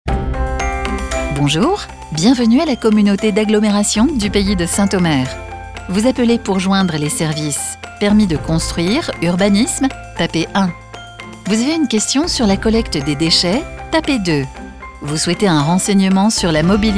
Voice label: French Voice Over for Professional Telephone Greetings; CAPSO